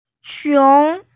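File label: "xiong2", Mandarin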